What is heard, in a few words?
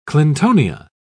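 "clintonia", British English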